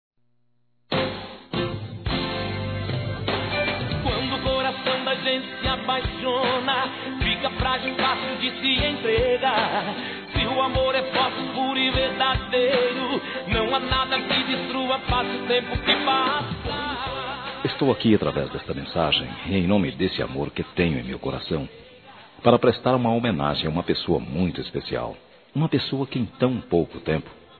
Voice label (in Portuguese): Aniversário Sertanejo Romantico Masculino